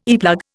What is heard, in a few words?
"earplug", Indian